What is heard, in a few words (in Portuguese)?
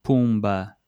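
"pumba", português europeu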